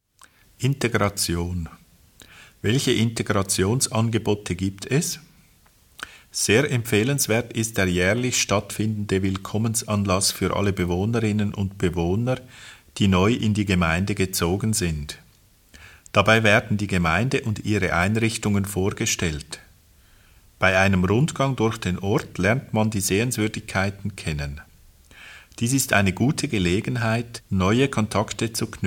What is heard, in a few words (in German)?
Erstinformation Audio Deutsch